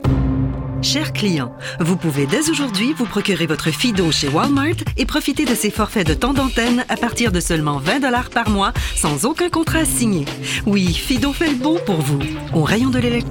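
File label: FR F commercial, French, female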